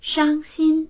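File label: "shang1xin1", Mandarin Chinese; 伤心; 傷心の、悲しい; dictionary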